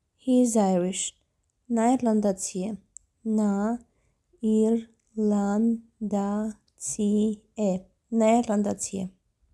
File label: He is Irish Armenian Na irkandatsi e